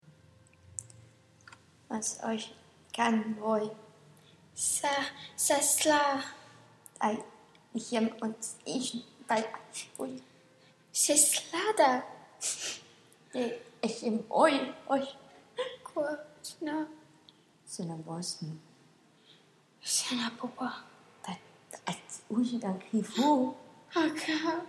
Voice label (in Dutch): triest gesprek; jabber